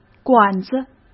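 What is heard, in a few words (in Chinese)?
"管子", Mandarin Chinese; hsk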